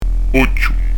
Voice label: "ochju", pronuncia; dizco grammatica

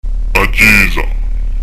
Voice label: "a chjesa", pronuncia; dizco grammatica

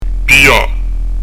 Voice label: "piglia", pronuncia; dizco grammatica

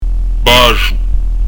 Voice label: "basgiu", pronuncia; dizco grammatica

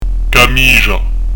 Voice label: "camisgia", pronuncia; dizco grammatica

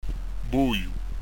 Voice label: "vogliu", pronuncia; dizco grammatica

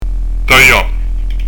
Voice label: "taglia", pronuncia; dizco grammatica

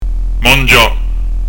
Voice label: "manghja", pronuncia; dizco grammatica

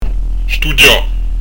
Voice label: "stughja", pronuncia; dizco grammatica

